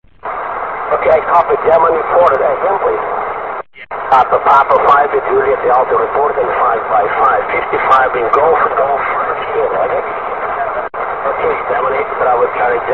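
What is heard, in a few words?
amateur radio contact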